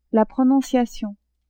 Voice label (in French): "prononciation", French